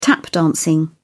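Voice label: "tap dancing", British English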